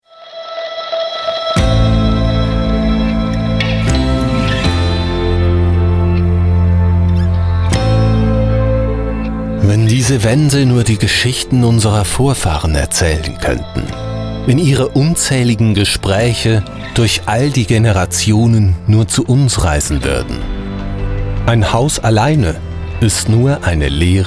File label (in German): erzaehlstimme fuer film; coming home